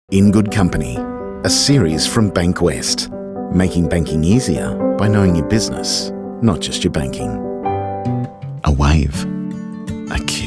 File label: narration